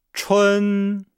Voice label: "chun", Nihao